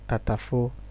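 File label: "tatafo", Nigerian Pidgin, male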